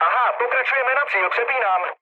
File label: chat, speech Czech; War Thunder